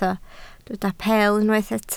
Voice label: "peel", Welsh

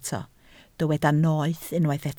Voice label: "noeth", Welsh

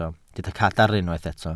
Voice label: "cadarn", Welsh

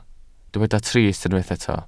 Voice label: "trist", Welsh

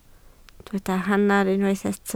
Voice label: "hanner", Welsh

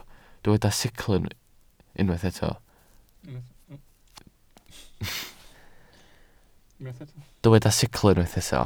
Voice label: "sicl", welsh

